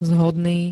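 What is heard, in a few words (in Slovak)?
"zhodný", Slovak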